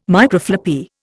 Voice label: "Microfloppy", Indian